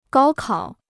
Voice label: "高考", Chinese

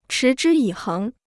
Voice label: "持之以恒", Chinese

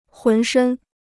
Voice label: "浑身", Chinese

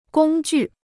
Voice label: "工具", Chinese

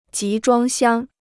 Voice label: "集装箱", Chinese

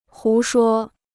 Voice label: "胡说", Chinese

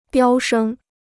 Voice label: "飙升", Chinese

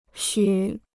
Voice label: "虚", Chinese

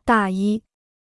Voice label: "大衣", Chinese

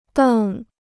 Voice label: "瞪", Chinese

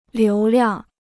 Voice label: "流量", Chinese

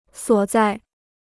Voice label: "所在", Chinese

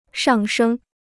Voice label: "上升", Chinese

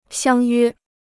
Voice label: "相约", Chinese

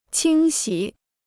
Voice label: "清洗", Chinese

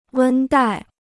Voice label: "温带", Chinese

